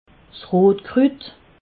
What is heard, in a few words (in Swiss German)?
"rotkrut", alsace